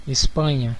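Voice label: "spain", Brazilian Portuguese; gcompris; geography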